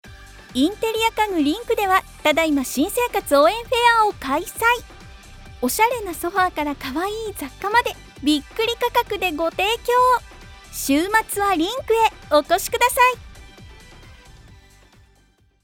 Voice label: 元気なCM

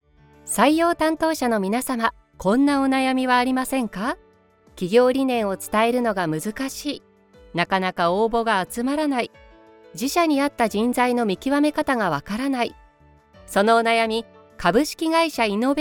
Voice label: 企業CM, narrator

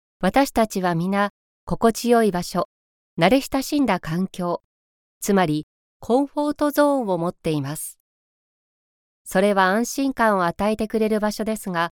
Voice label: BGM無し eラーニング

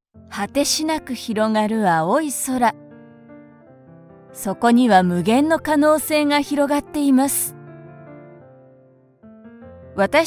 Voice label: 企業VP

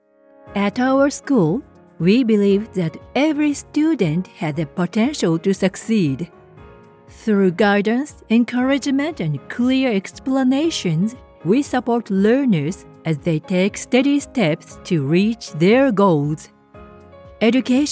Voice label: 英語

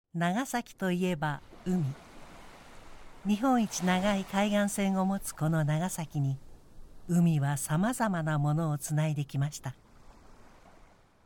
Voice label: 司会音声

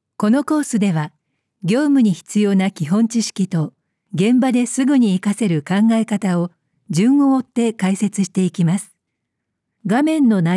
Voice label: eラーニング

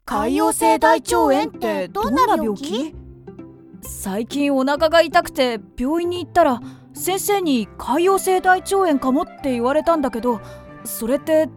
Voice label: 少年とキャラクター2役

